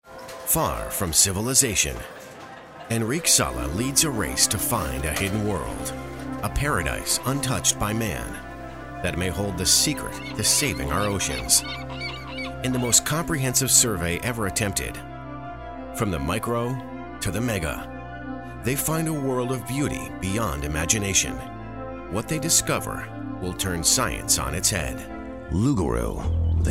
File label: narration